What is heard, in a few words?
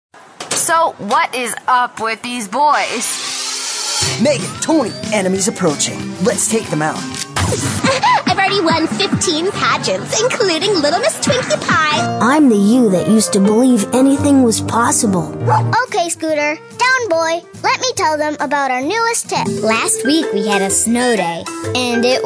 child showcase